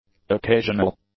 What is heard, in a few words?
"occasional", english; adjectives